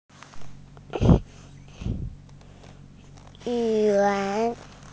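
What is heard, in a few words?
"zhua1", Mandarin Chinese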